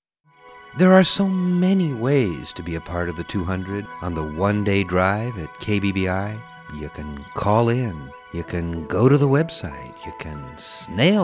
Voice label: promo; KBBI